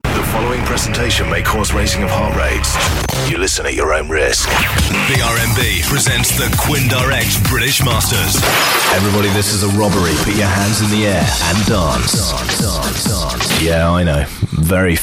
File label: Radio Imaging